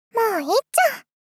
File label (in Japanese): もういっちょ！ 幼女～少女 高音域